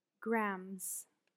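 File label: "grams", English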